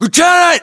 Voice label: rank lieutenant, US; localized english; battlechatter